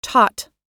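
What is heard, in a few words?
"taught", US English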